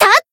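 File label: Voice attack, Japanese; orleya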